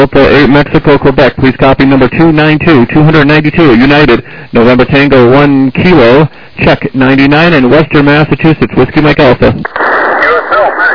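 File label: lower sideband 7MHz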